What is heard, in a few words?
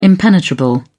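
"impenetrable", British English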